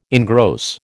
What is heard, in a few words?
"engross", American